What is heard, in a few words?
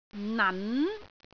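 "nan2", Cantonese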